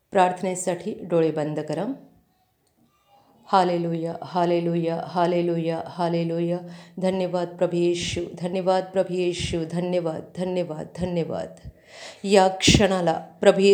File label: Marathi Prayer